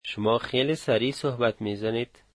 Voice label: you speak very fast, Dari

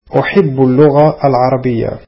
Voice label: arabic I like Arabic

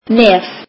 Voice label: icelandic nose

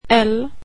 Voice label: swedish alphabet l

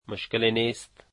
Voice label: dari no problem

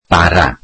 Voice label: welsh bread

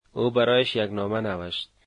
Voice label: dari she wrote him a letter

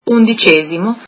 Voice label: italian eleventh

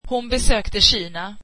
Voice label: swedish she visited China

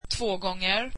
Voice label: twice, swedish

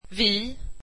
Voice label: we, swedish

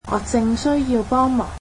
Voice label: cantonese I need help immediately

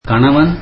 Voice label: tamil husband

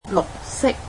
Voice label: "green", Cantonese; adjectives

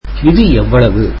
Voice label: tamil how much is this

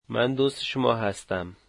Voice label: I am your friend, dari

